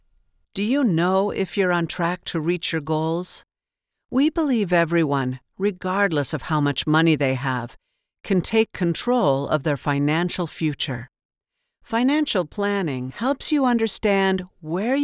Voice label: Financial Confident Strong Trustworthy Mid tone, voiceover